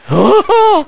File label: Man Surprised